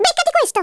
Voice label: DRAGON PUNCH, Speech Italian; Worms Armageddon

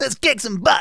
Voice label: "Orders", speech; Soul Man

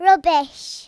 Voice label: boring, Speech Kidz; Worms Armageddon

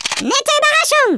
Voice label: COLLECT, Speech German; Worms Armageddon

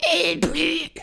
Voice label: "fatality", Danish; Worms Armageddon; Danish

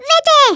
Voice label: "go away", Hispanic; Worms Armageddon

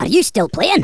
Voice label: "HELLO", Angry Scots; Worms Armageddon

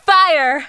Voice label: "fire", Smooth Babe; Worms Armageddon

